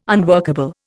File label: "unworkable", Indian